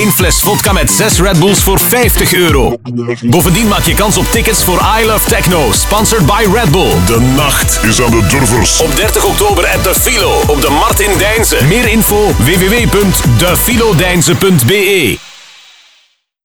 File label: halloween party commercial; the philo